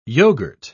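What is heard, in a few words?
"yoghourt", British English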